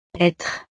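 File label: "etre", French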